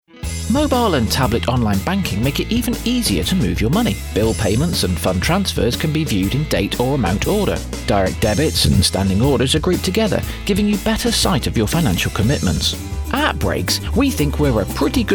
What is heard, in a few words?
Young Male, elearning voices